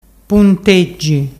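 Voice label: "punteggi", Ligurian dialect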